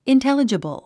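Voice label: "intelligible", English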